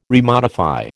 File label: "remodify", American